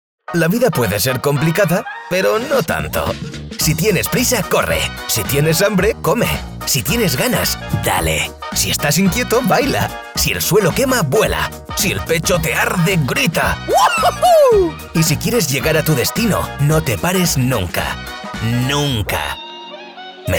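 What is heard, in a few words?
comercial, Spanish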